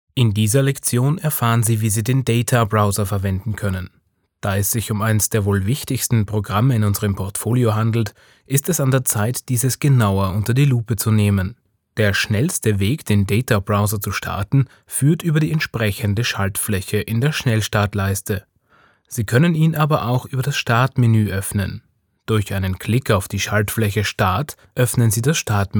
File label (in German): E Learning